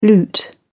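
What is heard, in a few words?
"loot", British English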